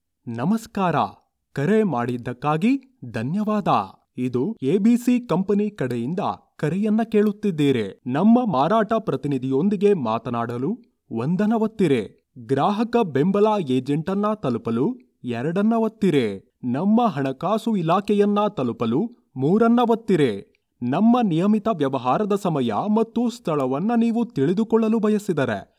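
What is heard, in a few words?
Kannada, male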